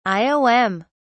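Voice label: "iom", English, British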